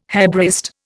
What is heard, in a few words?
"Hebraist", Indian